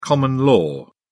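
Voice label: "common-law", English, British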